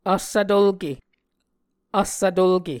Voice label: "vssvtulke", Muscogee

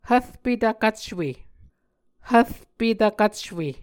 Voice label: "hvrpe tvkvcwe", Muscogee

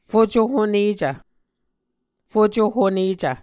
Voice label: "fucohonecv", Muscogee; Mvskoke Words with Voicing Consonants; A Dictionary of Creek/Muskogee; SIL International; Onvkv Ohhvyayices

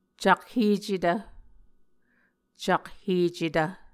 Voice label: "cakhecetv", Muscogee

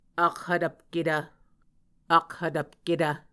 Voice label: "akhvtvpketv", Muscogee

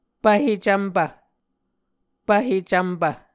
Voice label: "pvhe cvmpv", Muscogee

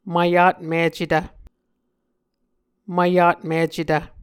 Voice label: "mvyatmicetv", Muscogee

